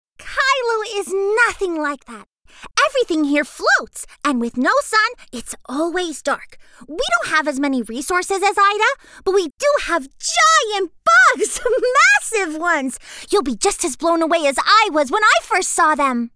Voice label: english american video games